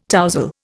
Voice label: "tousle", Indian